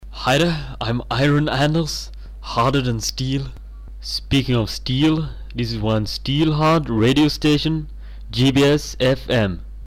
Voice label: hard radio station